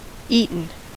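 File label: "eaten", American English; pronunciation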